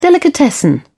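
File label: "delicatessen", British English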